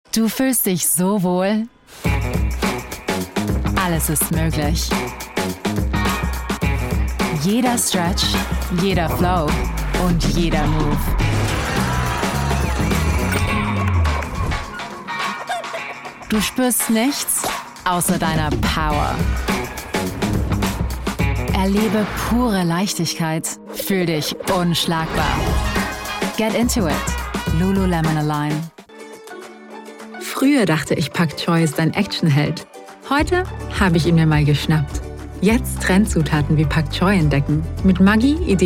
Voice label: German

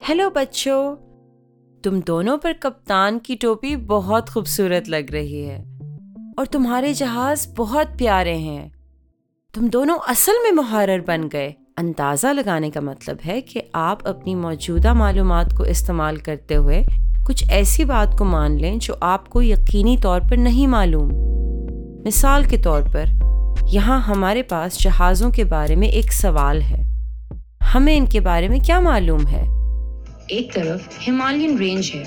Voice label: Urdu

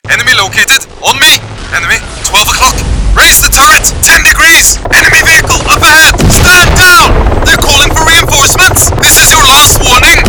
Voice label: Gaming English Norwegian Military Commander

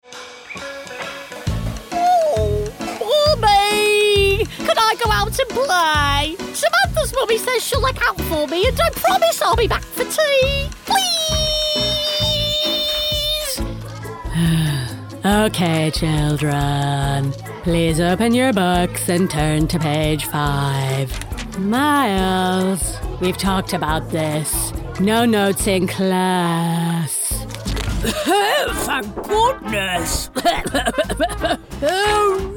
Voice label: Animation

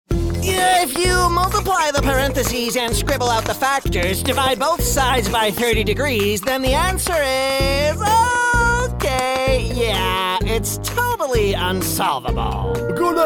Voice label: Animation

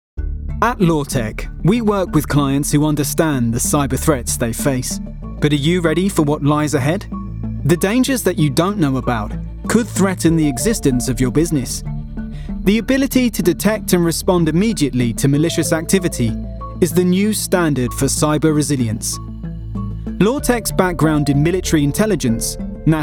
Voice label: CORPORATE

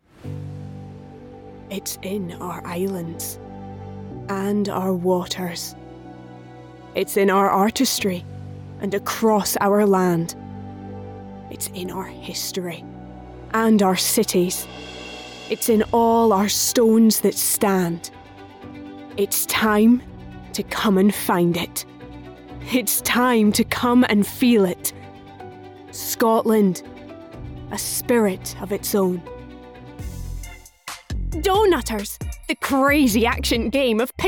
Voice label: Commercials

